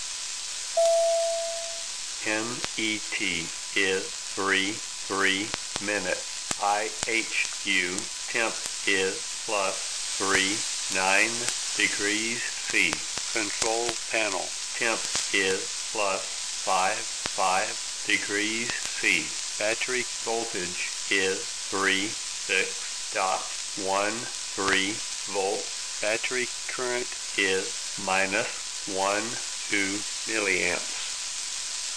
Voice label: spoken tlm male; arissat